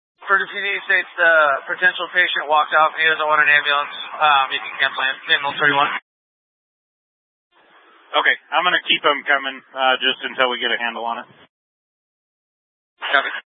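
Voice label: Incident Tactical 2, Scanner audio recording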